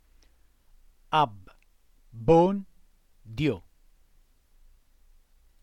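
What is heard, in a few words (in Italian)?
"Abbondio" Italian word, voce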